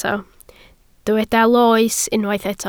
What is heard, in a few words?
"loes", Welsh